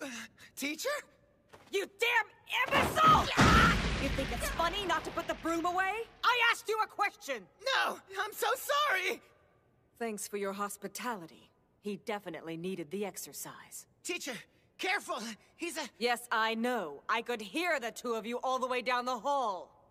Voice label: Teacher Scolding; Edward

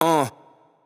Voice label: "Huh", Vox

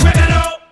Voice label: "Criminal!", Vox

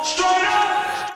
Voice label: vox

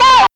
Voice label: VOX, CHILD

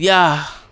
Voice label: "Yah", Vox

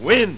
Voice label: "Win", Vox

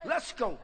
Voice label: Lets Go, Vox

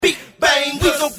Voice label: acapella

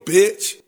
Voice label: "Bitch", Vox

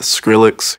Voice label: EDM, Vox

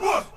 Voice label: "whut", Vox

